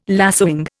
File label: "lacewing", Indian